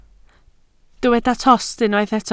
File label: "tost", welsh